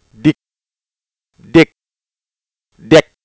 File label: deg contrast, Wolof